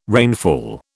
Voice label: "rainfall", British